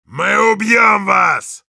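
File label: Heavy cheers, Russian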